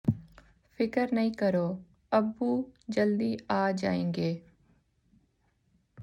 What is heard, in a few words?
Urdu